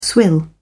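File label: "swill", British English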